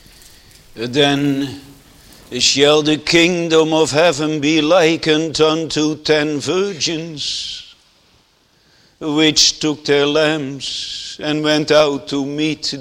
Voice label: Sermon